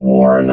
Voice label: "warn", robot